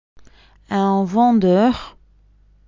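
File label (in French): "un vendeur", French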